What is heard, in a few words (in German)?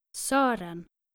"soeren", nordische jungennamen